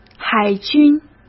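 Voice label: "海军", Mandarin Chinese; hsk; chinese flashcards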